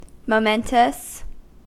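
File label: "momentous", US English; pronunciations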